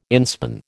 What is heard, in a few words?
"inspan", American